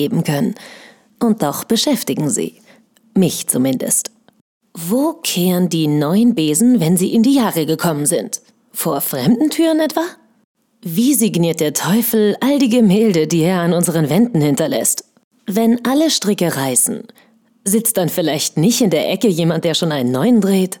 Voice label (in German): Fragen Geheimnisvoll